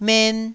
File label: "men1", Taiwanese syllable